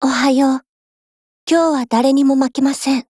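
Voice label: HELLO, Japanese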